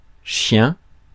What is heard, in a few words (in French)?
"chien", Mots francais